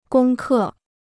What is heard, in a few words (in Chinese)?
"攻克", Chinese